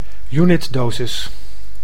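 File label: "unit-dosis", Dutch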